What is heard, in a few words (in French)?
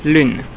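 "lune" French; ipa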